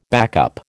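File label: "backup", American English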